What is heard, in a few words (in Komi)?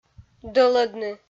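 "дӧлӧдны", Komi, female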